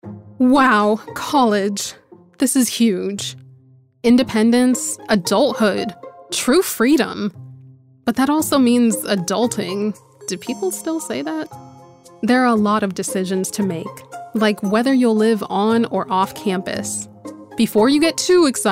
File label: Elearning